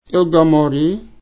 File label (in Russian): "ставить", Nanai